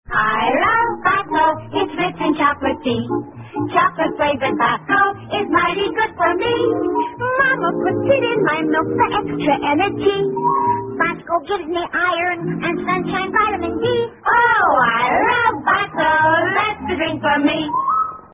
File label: vintage commercials; bosco